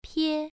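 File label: "pie", tone 1, pronunciation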